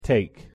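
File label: pronunciation "take", English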